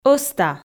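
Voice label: "ӧзта", Komi, female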